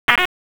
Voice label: FIRE OPS, p25